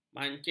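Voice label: "mancis", Malay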